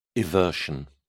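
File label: "eversion", British English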